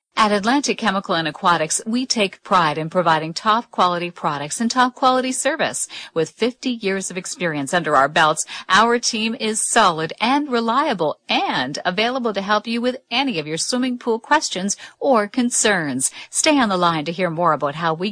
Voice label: female